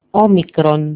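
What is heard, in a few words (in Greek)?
"omicron", Greek; alphabet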